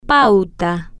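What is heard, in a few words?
"pauta", Spanish, female, slow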